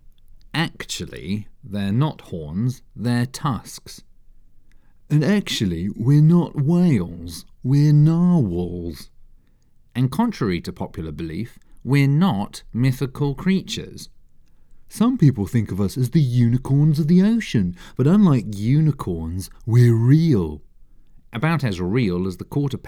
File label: Commercial; Wendys Narwhals